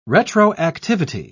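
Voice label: "retroactivity", British English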